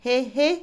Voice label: toser, Otomí, mujer; Cuerpo; INALI

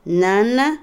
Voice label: madre, Otomí, mujer; Parentesco; Vocabulary; INALI

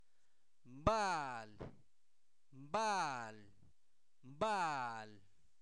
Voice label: compadre, Zapoteco, santo domingo albarradas; INALI; humano

